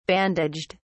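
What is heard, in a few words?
"bandaged", British English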